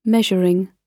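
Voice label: "measuring", British English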